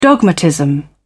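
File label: "dogmatism", British English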